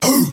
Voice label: "hoo"